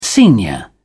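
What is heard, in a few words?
"snr", British English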